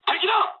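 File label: Japanese enemy detected mood low; War Thunder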